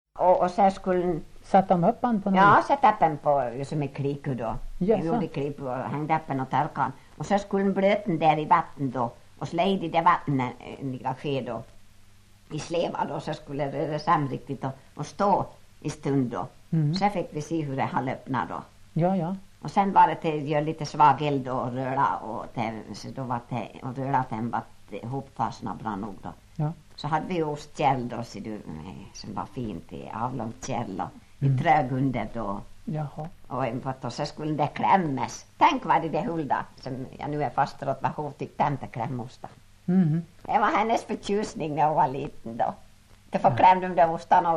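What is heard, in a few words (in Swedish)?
Ål Dalarna, Svenska sagor, dialekter; Folkminnen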